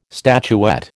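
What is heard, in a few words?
"statuette", American English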